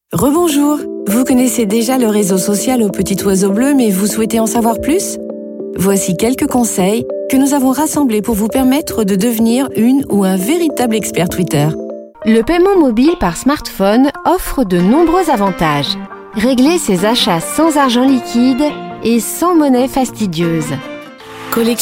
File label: corporate mix